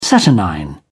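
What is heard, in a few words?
"saturnine", English, British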